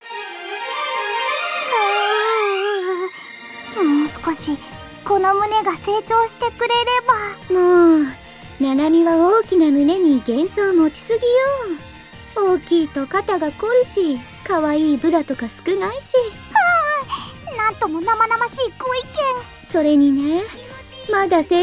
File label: Voice Drama